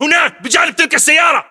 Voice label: landmark near car, battle chatter, english